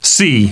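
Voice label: "yes", male; TALKNOW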